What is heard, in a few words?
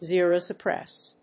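"zero suppress", English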